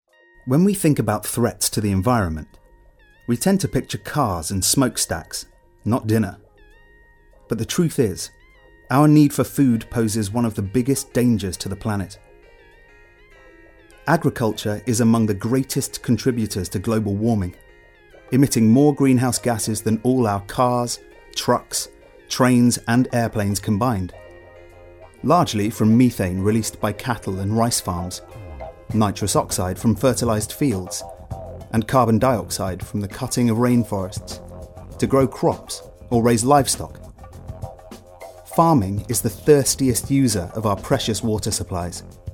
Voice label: Narration